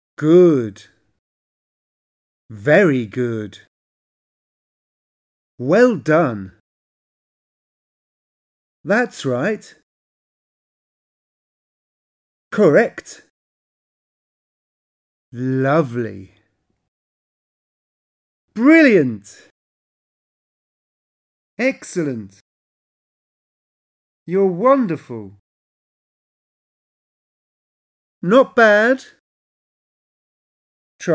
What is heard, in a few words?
Encouraging Praising; speak play ce2